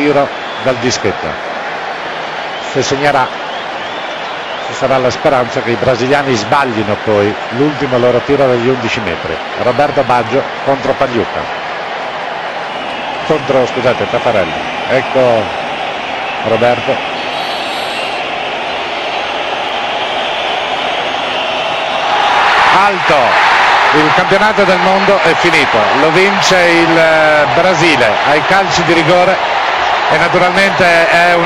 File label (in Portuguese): LOCUTOR ITALIANO NARRA GOL PERDIDO; ROBERTO BAGGIO COPA DE 1994